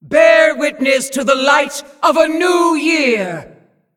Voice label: Female Demon HAPPY NEW YEAR